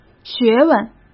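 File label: "学问", Mandarin Chinese; chinese flashcards; hsk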